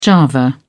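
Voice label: "java", British English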